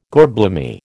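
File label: "gorblimey", American English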